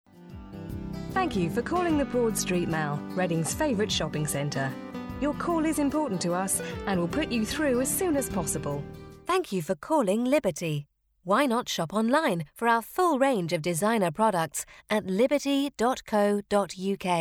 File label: female